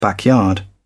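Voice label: "backyard", British English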